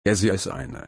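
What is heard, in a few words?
"einen", German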